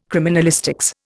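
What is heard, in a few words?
"criminalistics", Indian English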